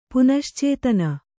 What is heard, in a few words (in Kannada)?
"ಪುನಶ್ಚೇತನ", Kannada